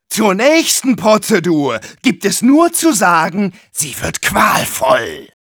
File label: Medic taunts, de